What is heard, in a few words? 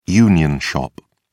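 "union shop", British English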